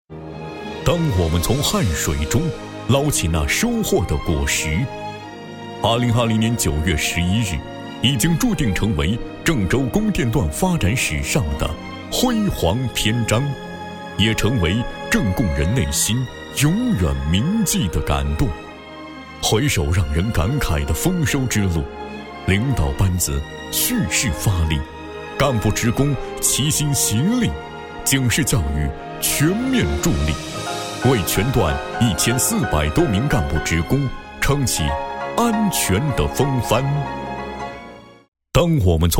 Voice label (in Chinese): 男 政府警示宣传片; 郑州供电段安全警示